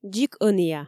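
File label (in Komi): "дзик ӧнія", Komi, female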